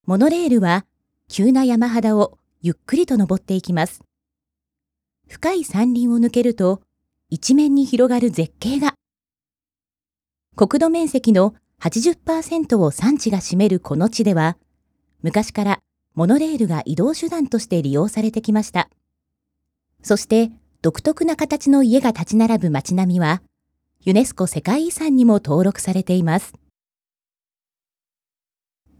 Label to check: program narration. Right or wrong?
Right